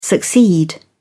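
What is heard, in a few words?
"succeed", British English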